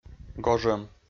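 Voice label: "гожӧм", Komi, male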